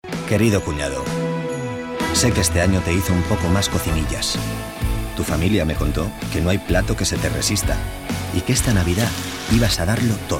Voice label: male spanish tv comercial

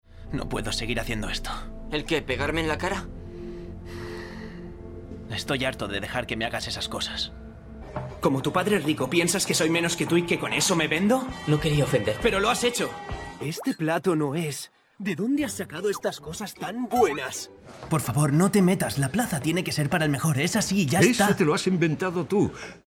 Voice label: male spanish tv dub; ideasonora

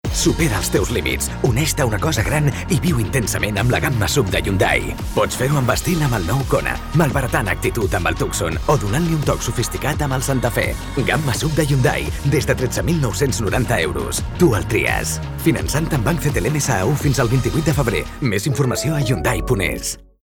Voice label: male catalan car comercial

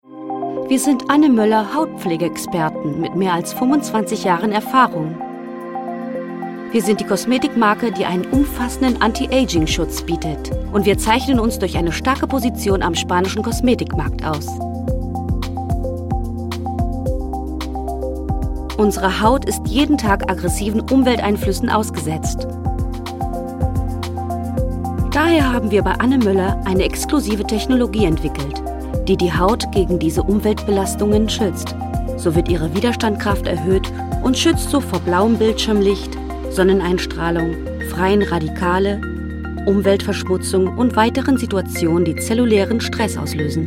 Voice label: female german skin comercial; ideasonora

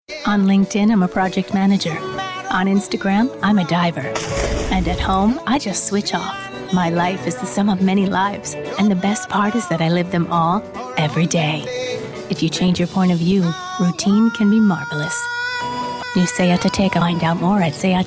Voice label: female american english comercial

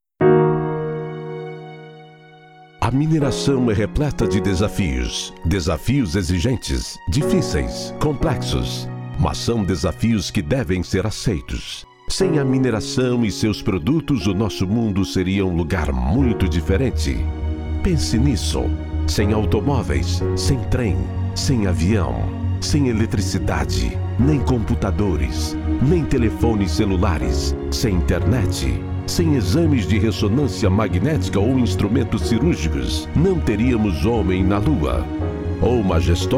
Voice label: male brazilian comercial; ideasonora

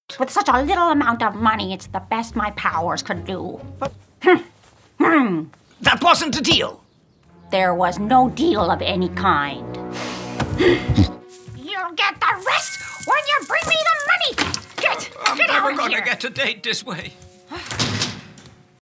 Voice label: female american english cartoon comercial

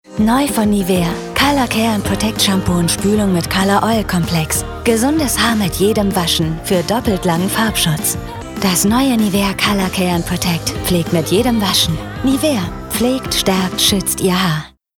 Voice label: female german skin comercial; ideasonora

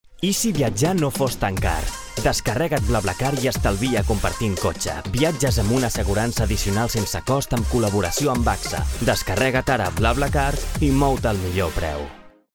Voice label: male catalan travel comercial; ideasonora

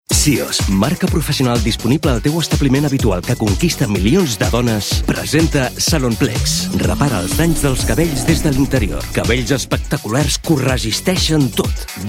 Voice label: male catalan comercial; ideasonora

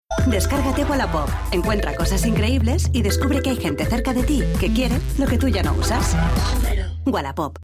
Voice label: female spanish app comercial; ideasonora

